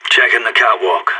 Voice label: checking catwalk, radio; SAS